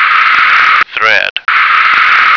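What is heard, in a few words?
"thread", American English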